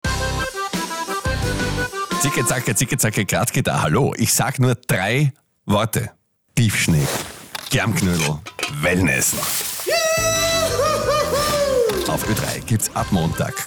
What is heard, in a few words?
Promo